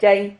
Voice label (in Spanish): "yai", Silabas